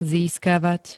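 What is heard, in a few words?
"získavať", Slovak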